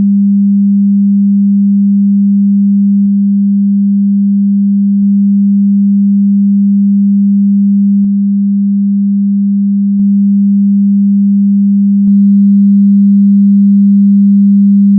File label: One Two Decibel Changes; 1+1-2+2+3 dB